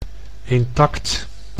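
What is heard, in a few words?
"intact", Dutch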